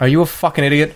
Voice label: jeers; scout